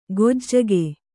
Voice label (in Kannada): "ಗೊಜ್ಜಗೆ", Kannada